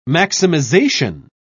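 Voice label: "maximisation", British English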